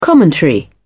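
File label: "commentary", English, British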